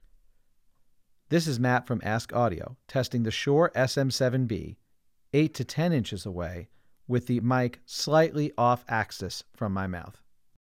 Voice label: sm7b off axis